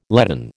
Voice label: "leaden", American